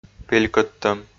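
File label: "пелькӧдтӧм", Komi, male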